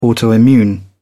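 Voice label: "autoimmune", English, British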